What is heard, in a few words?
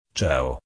"ciao", italiana